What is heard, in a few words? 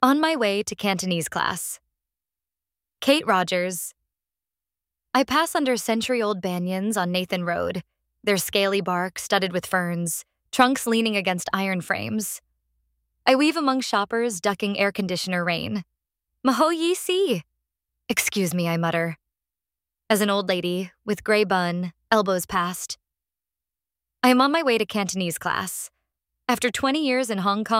poem; Poem appreciation - On My Way to Cantonese Class (Kate Rogers)